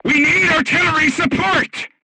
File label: voice message art command, crew tanks portuguese